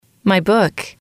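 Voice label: "book", female, English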